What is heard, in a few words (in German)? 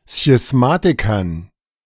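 "Schismatikern", German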